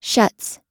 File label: "shuts", US